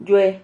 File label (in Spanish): "yue", Silabas